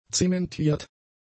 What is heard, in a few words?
"zementiert", German; adjectives